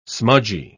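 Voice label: "smudgy", British English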